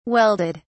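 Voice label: "welded", British English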